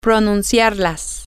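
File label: to pronounce it, spanish, female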